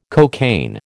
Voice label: "cocaine", American